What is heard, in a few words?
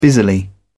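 "busily", British English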